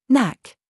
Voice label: DRESS TRAP, minimal pairs